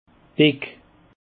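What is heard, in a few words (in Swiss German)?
"beck", Alsatian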